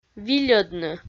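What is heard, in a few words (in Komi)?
"вильӧдны", Komi, female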